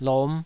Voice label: "lom5", Taiwanese syllable

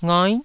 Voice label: "ngai9", taiwanese syllables

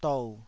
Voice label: "dou3", taiwanese syllable

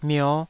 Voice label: "mio5", taiwanese

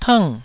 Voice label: "tng4", Taiwanese

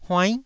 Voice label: "huainn9", Taiwanese syllable

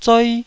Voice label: "zoi1", taiwanese

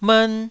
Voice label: "mern1", taiwanese

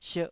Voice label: "sierh2", Taiwanese syllable

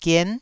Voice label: "gien9", Taiwanese syllable

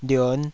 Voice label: "lion5", Taiwanese syllable

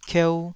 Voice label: "kieu2", taiwanese syllable

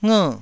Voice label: "nger4", taiwanese syllables

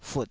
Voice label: "fut3", Taiwanese

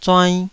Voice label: "zuainn2", taiwanese

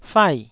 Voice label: "fai4", Taiwanese

